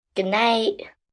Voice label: "Goodnight", 1 girl, 11 yrs.; Talking; Greeting